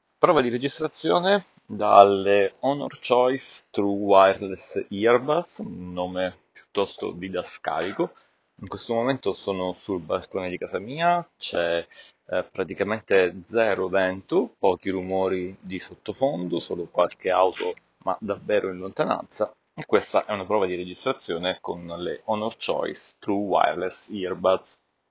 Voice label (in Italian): Test registrazione Honor Choice True Wireless Earbuds esterno